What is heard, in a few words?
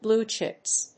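"blue chips", English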